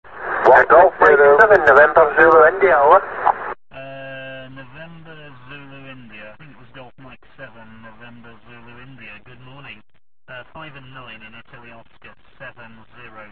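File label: amateur radio contact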